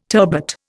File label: "turbot", Indian